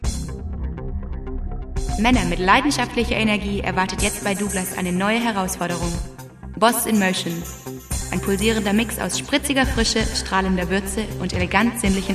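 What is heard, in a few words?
German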